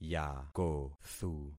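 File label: "yakothu", concatenated syllables; Affixing experiment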